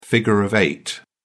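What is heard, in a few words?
"figure of eight", English, British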